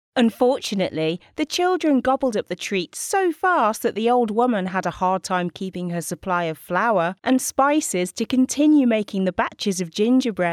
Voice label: english british audiobooks